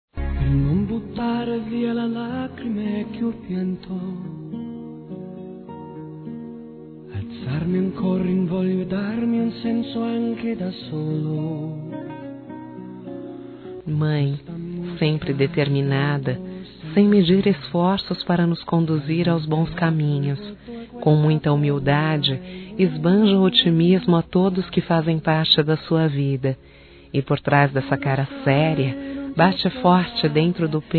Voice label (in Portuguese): ANIV. MÃE F; Aniversários; Aniversários diversos; fem